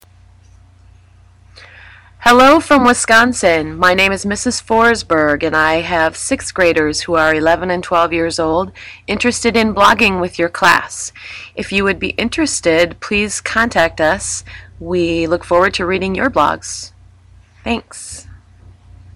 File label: voice message